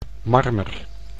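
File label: "marmer", Dutch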